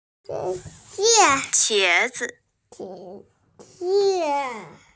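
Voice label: "qie2zi5", Mandarin Chinese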